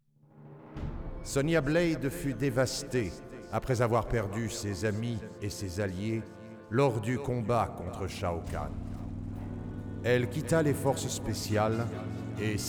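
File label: music voice over endings French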